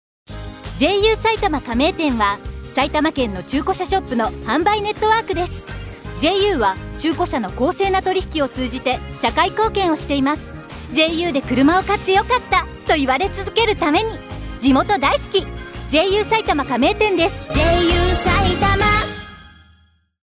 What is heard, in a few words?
radio